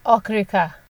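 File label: "okrika", Nigerian Pidgin, female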